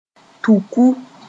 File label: "tuku", moore